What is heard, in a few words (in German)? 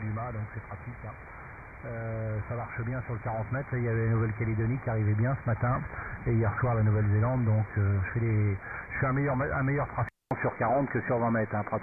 USB Amateurfunk; Airspy HF Plus